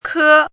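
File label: "kē", Mandarin Chinese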